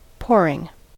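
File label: "pouring", American English; pronunciations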